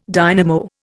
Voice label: "dynamo", Indian